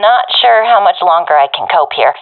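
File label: scared, professional female; vo; Counter Strike Model Pack; CS:GO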